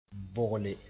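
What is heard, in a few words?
"bogle", Mooré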